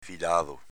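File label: "filado", Venetian dialect; avv. consecutivo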